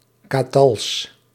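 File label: "katals", Dutch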